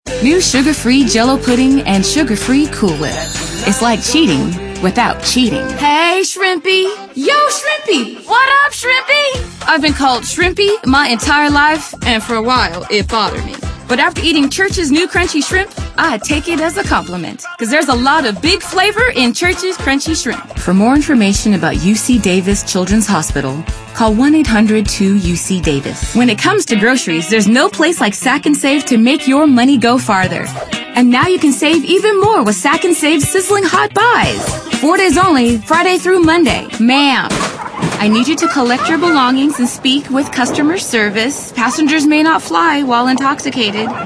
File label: female, contemp